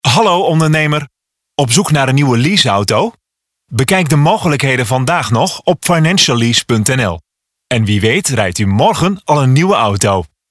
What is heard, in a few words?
Website Ad